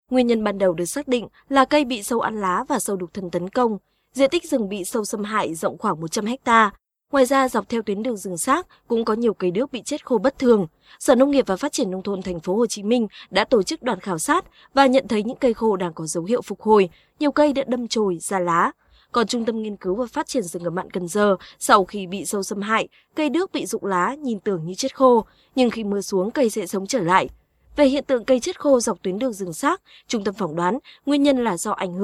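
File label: vn informative, Vietnamese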